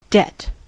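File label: "debt", US English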